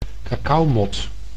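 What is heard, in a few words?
"cacaomot", Dutch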